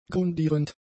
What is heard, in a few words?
"grundierend", German; adjectives